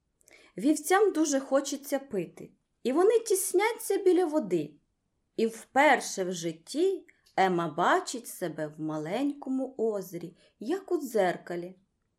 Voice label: ukrainisch

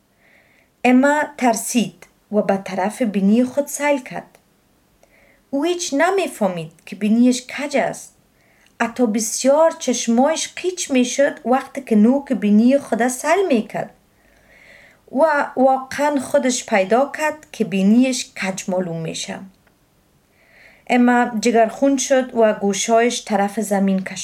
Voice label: dari

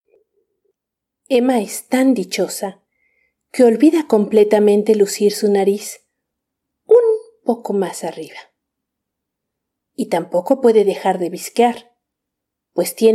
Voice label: spanisch

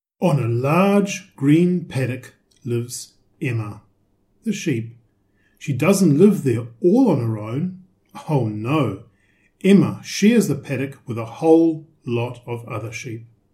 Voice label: englisch

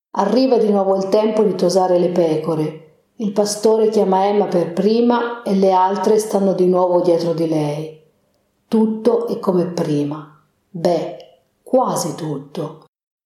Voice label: italienisch

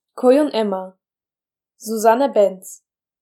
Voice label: Track Titel türkisch

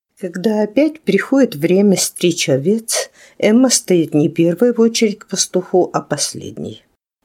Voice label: russisch

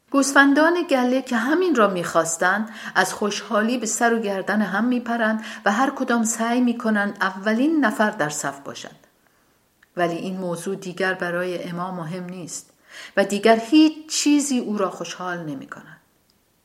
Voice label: farsi

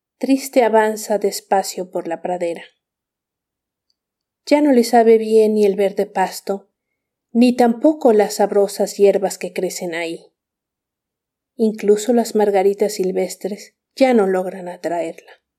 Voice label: spanisch

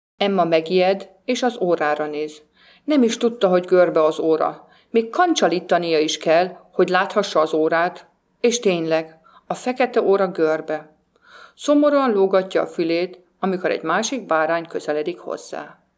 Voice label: ungarisch

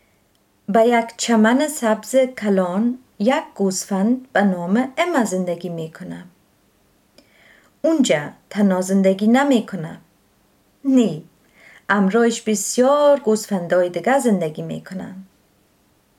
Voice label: dari

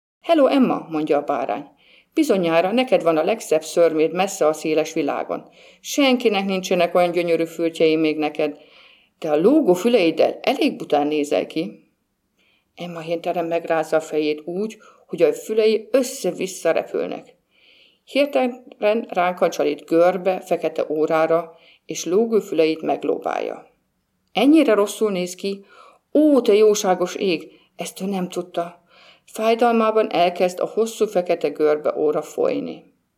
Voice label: ungarisch

